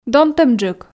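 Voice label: "донтӧмджык", Komi, female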